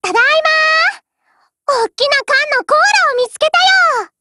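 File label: OPERATION OVER, Japanese; GF Alarm; M1873Mod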